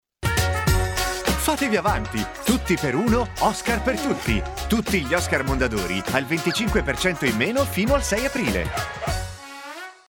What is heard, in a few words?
male Italian tv comercial; ideasonora